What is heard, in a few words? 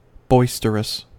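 "boisterous", US English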